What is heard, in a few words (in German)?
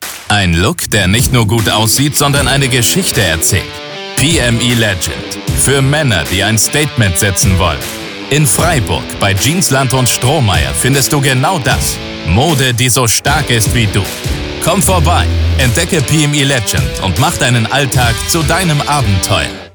Radiowerbung